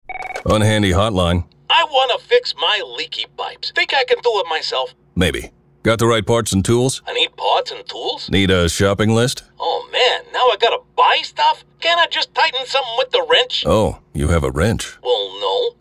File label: RADIO; WRENCH